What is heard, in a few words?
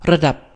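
level, Basque; gcompris-data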